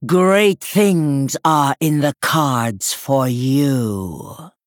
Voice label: Female Troll Victory; MadameLazul

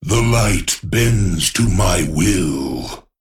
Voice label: Male Golem Play

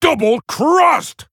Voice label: Male Pandaren Attack

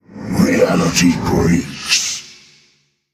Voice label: Male Elemental Play

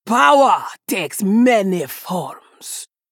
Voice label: Female Troll Play

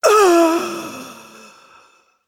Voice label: Female Dragon Death

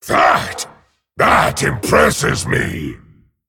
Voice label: Male Dragon Wow

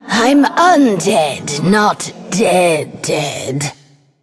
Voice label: Female Forsaken

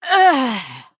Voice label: Female Human Death

